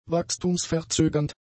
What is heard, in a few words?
"wachstumsverzögernd", German; adjectives